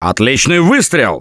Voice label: Nice Shot, left4dead2 russian, Survivor Voice

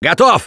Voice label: defibrillator, left4dead2 russian, Survivor Voice

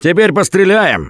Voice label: Take Sniper, left4dead2 russian, Survivor Voice; Gambler

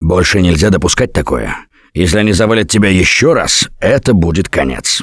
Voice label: Revive Critical Friend, left4dead2 russian, Survivor Voice; Gambler

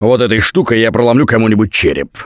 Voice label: take bat, left4dead2 russian, Survivor Voice; Gambler

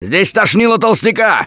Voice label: boomer jar, left4dead2 russian, Survivor Voice; Gambler

